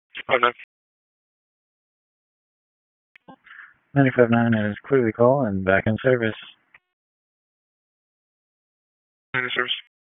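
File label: EMS Dispatch, p25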